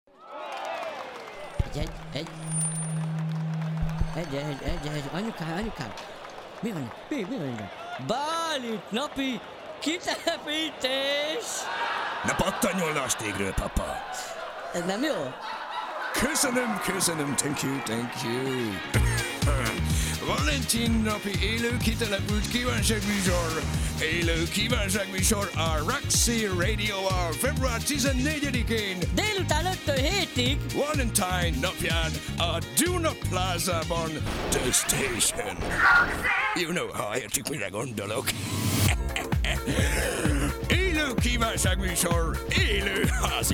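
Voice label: Hungarian, Video Game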